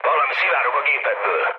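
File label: Hungarian tank damaged mood low, speech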